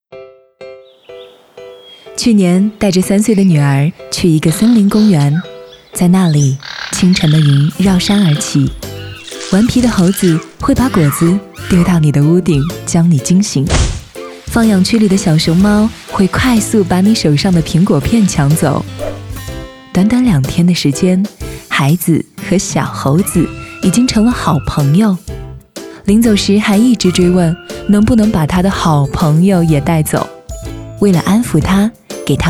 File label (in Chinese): 生物多样性公益